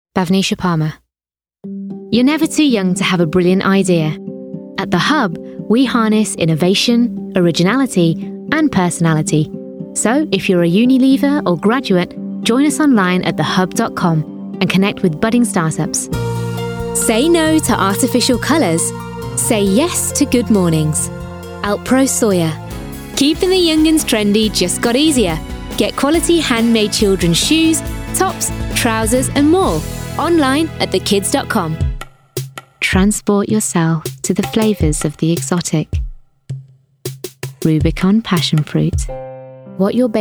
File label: Commercial